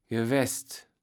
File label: "gewaest", Kaevels Platt